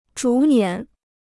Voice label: "逐年", Chinese

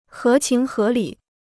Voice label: "合情合理", Chinese

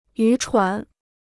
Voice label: "渔船", Chinese

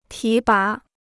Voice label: "提拔", Chinese

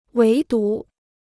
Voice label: "唯独", Chinese

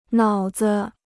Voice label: "脑子", Chinese

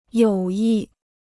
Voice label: "友谊", Chinese

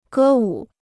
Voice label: "歌舞", Chinese

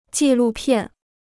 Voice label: "纪录片", Chinese; jì lù piàn; newsreel

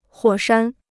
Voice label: "火山", Chinese; huǒ shān; volcano

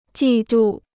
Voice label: "季度", Chinese

